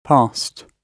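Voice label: "passed", British English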